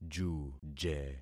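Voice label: "juje", concatenated syllables; Affixing experiment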